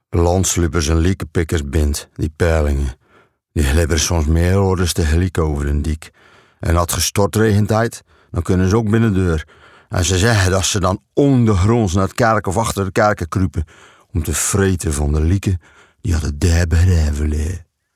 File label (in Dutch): Zeeuws